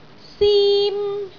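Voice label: "sim1", Cantonese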